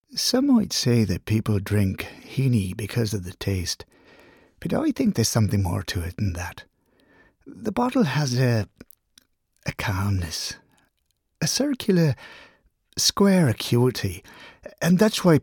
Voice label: English Irish accent